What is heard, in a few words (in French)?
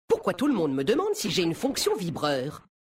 VF Mecagnome Homme Blague; Vibreur